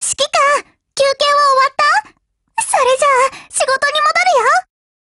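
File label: HELLO, Japanese; GF Alarm; SuperSASS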